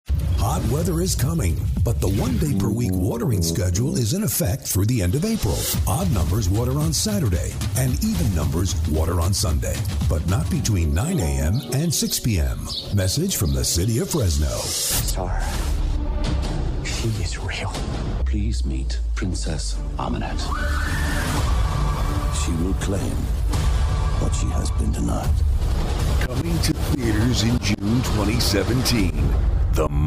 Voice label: Commercial Male